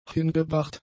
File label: "hingebracht", German; adjectives